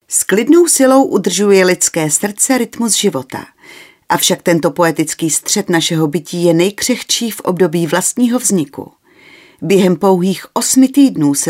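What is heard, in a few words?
Female Czech documentary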